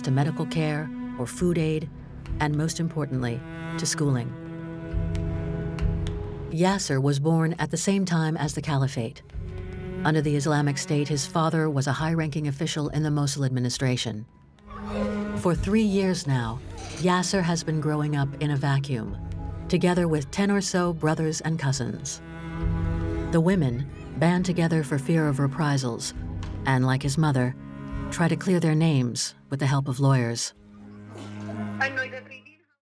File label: docu; Iraq's Lost Generation